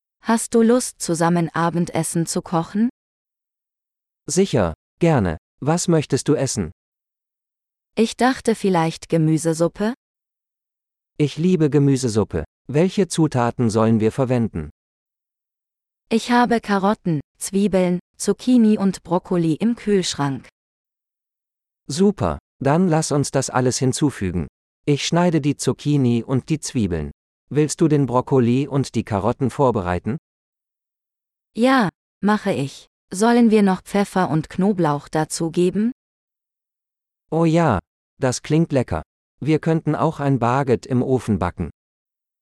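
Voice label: Cooking Together - Dialogue, German; de-en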